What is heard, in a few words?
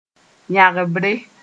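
"yãgbre", Mossi; rapidly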